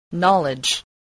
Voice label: "knowledge", English